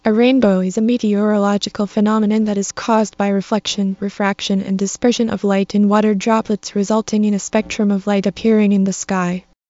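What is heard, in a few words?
speaker, American English; piper-voices; en US; arctic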